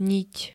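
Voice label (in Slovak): "niť", Slovak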